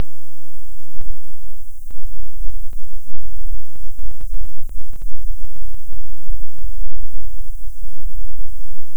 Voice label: vocoder